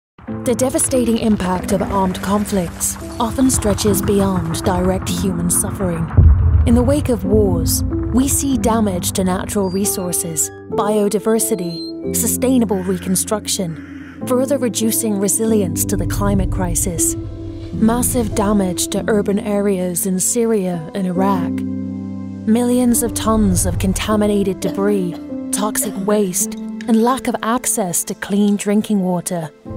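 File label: Mid Atlantic; PAX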